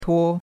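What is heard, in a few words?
"tuo1", Mandarin Chinese; Cours de Chinois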